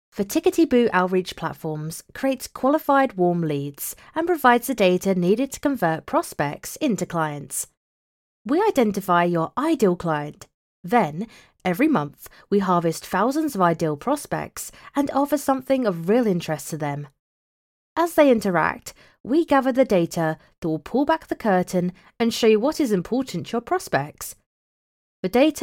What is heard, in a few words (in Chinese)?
说明 轻快